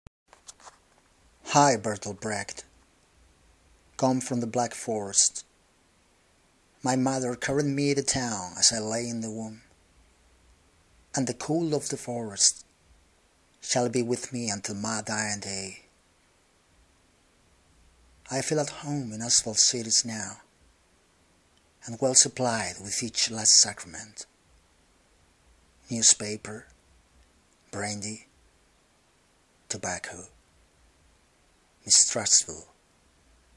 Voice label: English US accent; Bertolt Brecht